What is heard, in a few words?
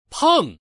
pong, voice man